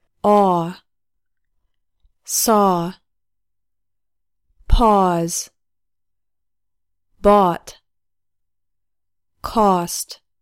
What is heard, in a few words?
english vowels